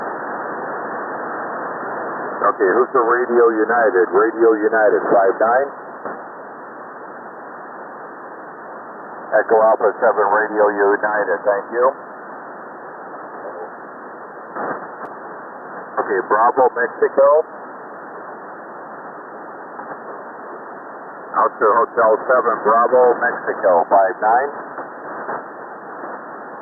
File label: 40 SSB